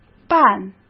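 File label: "半" Mandarin Chinese; chinese-flashcards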